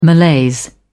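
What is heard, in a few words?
"malaise", British English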